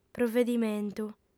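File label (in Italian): "provedimentu", lingua sarda, fem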